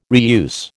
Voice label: "reuse", American